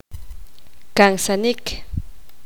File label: poqomam; matar